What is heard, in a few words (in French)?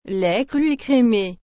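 "lait cru écrémé", French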